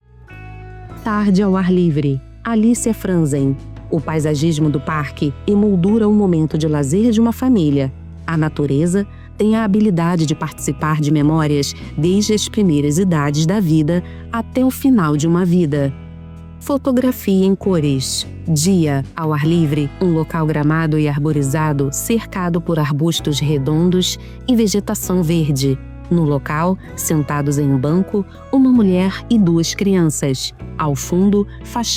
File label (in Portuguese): Audiodescrição; TARDE AO AR LIVRE